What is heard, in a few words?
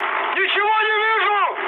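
searchlite, mood high, Russian; War Thunder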